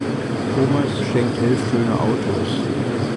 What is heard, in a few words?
Speech In Noise